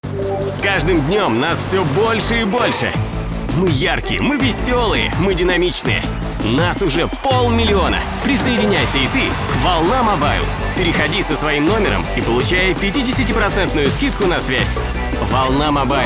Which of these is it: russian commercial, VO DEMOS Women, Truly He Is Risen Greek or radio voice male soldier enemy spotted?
russian commercial